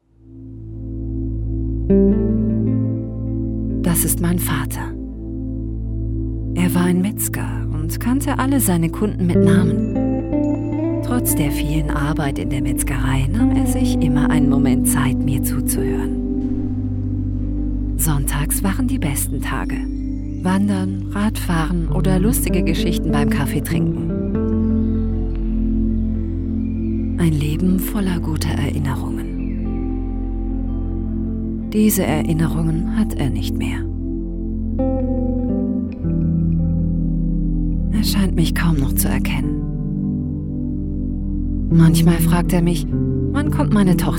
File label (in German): werbung produktinfofilm empathisch nachdenklich; memomoto